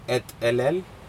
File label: is dripping, Aneityum